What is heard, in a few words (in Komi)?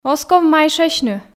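оз ков майшасьны, Komi, female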